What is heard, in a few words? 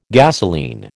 "gasoline", American